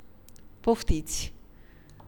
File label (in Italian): bar, rumeno; frasi utili